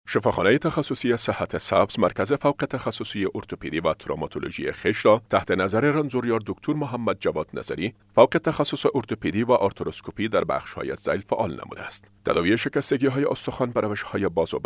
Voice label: dari male

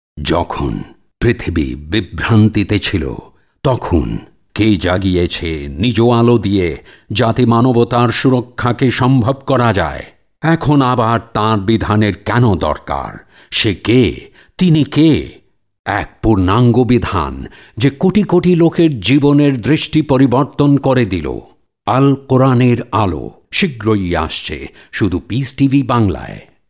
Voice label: Bengali Male

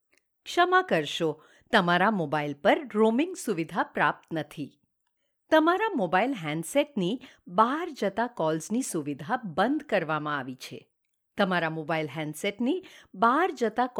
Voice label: Gujarati Female

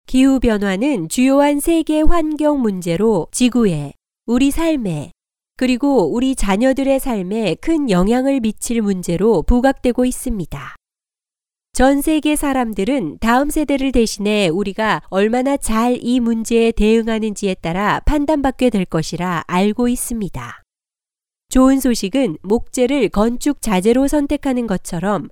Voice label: Korean Female

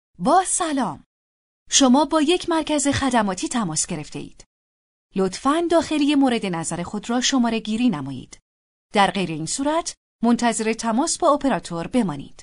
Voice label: Farsi Female

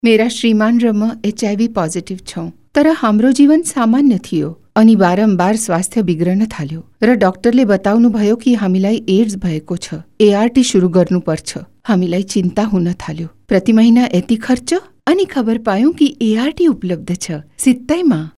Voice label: Nepalese Female

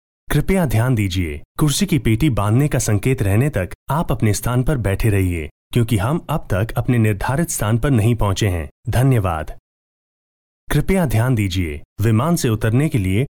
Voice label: Hindi Male

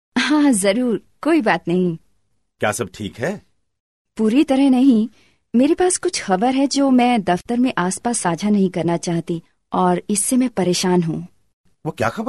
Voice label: hindi female

